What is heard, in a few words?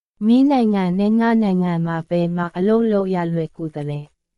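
Myanmar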